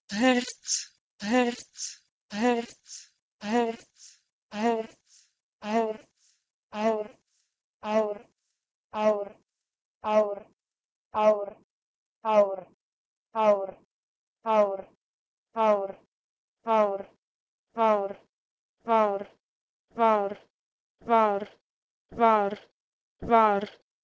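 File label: Proto-Indo-European h1ert to Albanian varr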